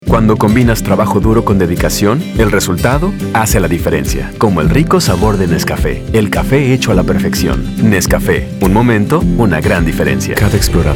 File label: male, Spanish Mexican, neutral Spanish LATAM